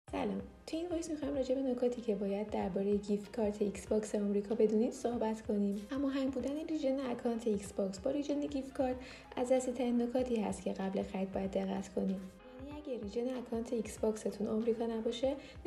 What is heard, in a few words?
us xbox voice; xbox giftcards